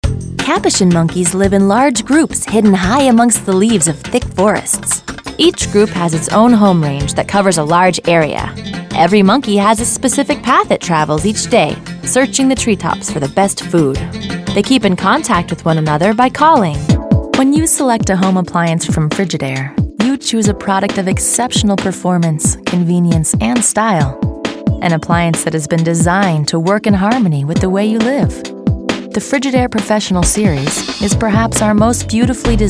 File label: Narrative